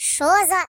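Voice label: "What the", British English; hedgewars; Default uk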